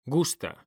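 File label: "gusta", Spanish, male; mekanta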